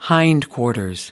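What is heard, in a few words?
"hindquarters", American English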